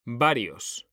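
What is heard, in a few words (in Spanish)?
"varios", Spanish, male; mekanta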